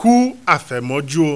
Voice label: "Ku afemoju o", Yoruba